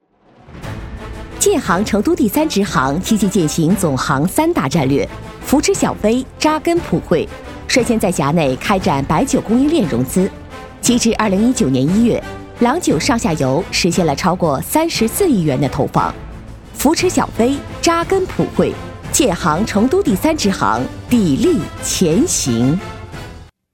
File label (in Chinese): 专题 银行 宣传 自然, 女国; 建行